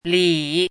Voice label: "lǐ", hanzi